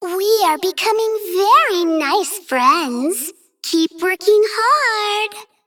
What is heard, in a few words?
Female Dragon Bark; Brightwing